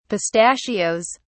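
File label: "pistachios", British English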